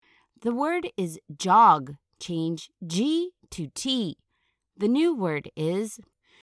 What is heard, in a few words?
dig manipulating ending sounds